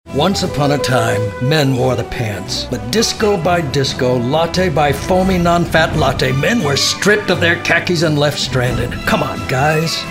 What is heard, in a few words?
Commercial